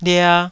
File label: "lia2", Taiwanese syllable